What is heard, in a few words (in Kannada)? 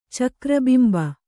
"ಚಕ್ರಬಿಂಬ", Kannada